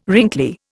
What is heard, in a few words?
"wrinkly", Indian